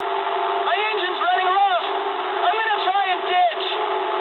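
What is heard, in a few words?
English damaged engine mood medium; War Thunder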